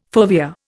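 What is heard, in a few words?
"fovea", Indian English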